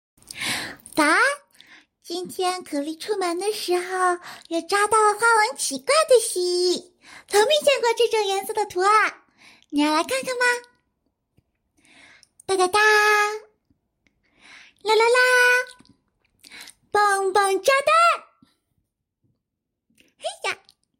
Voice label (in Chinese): 萝莉音鬼马